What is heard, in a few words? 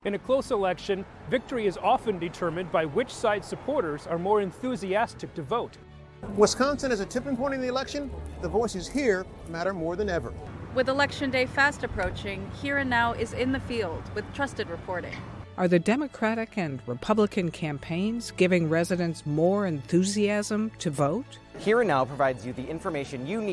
Reporters Promos; Fall; Voter Enthusiasm